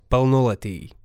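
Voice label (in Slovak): "plnolety", Slovak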